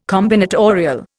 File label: "combinatorial", Indian